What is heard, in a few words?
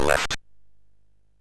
MOS6581R3, Electronic Speech Systems; Kennedy Approach